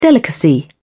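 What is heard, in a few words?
"delicacy", British English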